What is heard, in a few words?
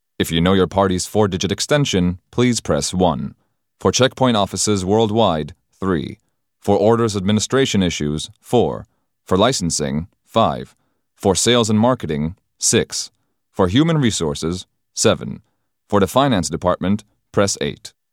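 english interactive voice response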